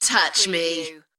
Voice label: UK female Touch me; beanstalk audio

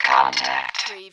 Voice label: Robot voice, "contact"; lynne publishing

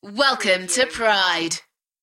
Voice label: UK female Welcome to pride; beanstalk audio

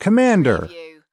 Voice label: "commander", US male; lynne publishing

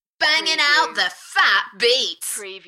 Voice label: UK female Enthusiastic; Banging the fat beats

